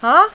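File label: "huh", female; voice; Web Clip Empire 150,000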